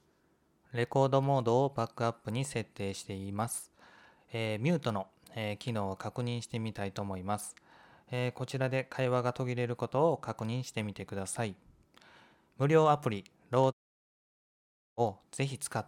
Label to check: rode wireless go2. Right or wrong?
Right